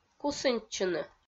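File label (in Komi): "кусыньтчыны", Komi-Zyrian, female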